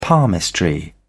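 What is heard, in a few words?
"palmistry", British English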